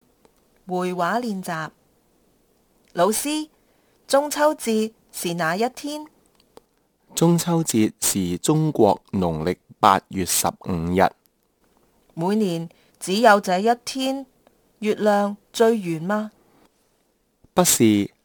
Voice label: conversation, Kantonese